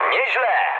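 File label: trick mood med, speech Polish; War Thunder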